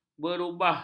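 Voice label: "berubah", Malay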